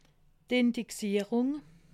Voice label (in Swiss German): "indexierung", alsace